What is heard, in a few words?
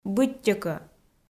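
"быттьӧкӧ", Komi-Zyrian, female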